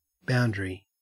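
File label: "boundary", Australian English; pronunciations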